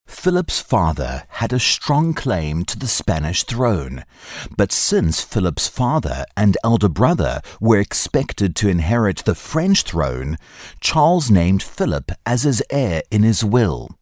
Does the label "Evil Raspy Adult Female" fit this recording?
No